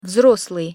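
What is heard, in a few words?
"vzroslyj", Russian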